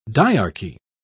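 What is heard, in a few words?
"diarchy", British English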